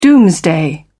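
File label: "doomsday", British English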